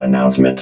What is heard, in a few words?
announcement, robot